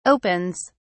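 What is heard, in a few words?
"opens", British English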